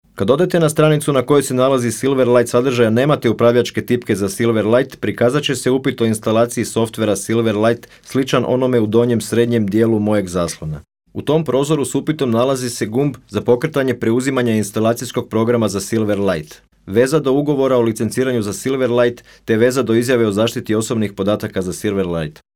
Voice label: croatian m e-learning, voice demos